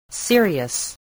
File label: "serious", spelling